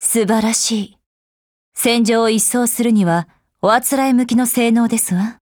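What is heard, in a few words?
BREAKTHROUGH, Japanese; Agent buhuo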